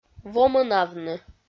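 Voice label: "вомӧнавны", Komi, female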